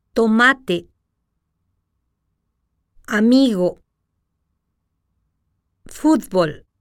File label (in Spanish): Pronunciacion - Silabas